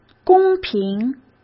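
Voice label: "公平", Mandarin Chinese; chinese flashcards; hsk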